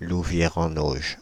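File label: "Louvières-en-Auge", French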